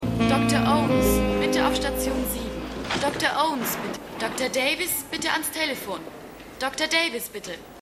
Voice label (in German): Krankenhausdurchsage